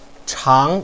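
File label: "chang", Mandarin Chinese, second tone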